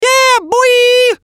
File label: VOICE COMICAL; Radio Voice -Yeah Boyee